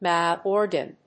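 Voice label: "mouth organ", English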